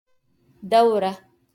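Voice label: "دورة" Arabic; cycle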